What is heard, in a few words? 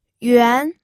"yuan2", pinyin